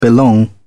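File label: "belong", British English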